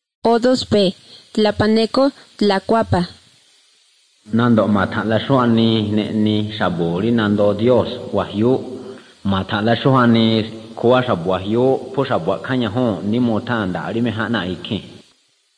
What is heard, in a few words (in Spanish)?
spanish mexico